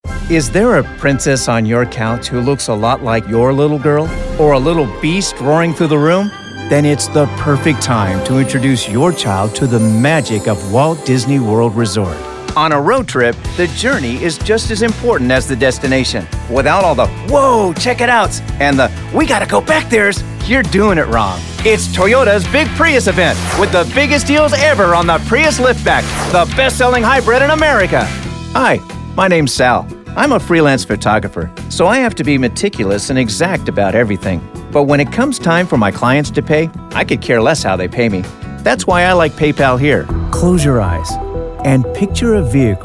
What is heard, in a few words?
Commercial